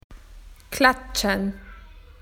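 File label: "to clap", Australian English; PictureDictionary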